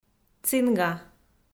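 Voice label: "цинга", Komi, female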